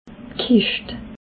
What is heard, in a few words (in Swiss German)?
"kischt", alsace